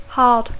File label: "hard", English